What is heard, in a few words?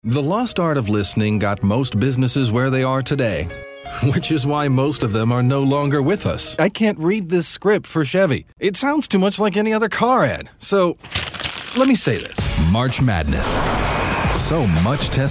Commercial